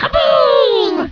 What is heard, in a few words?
annoyed; goblin sappers; Kaboom.